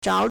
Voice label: "zhao3", Chinese